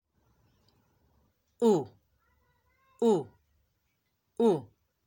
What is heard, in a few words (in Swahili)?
Sauti u, kiswahili; kutamka irabu za kiswahili